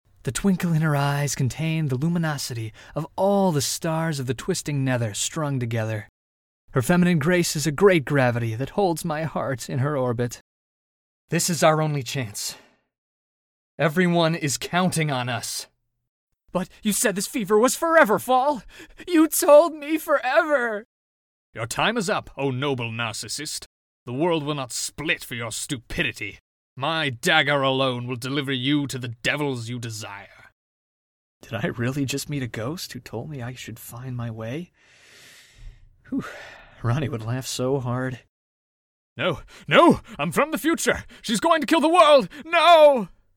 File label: character